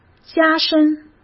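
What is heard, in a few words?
"加深" Mandarin Chinese; hsk; chinese-flashcards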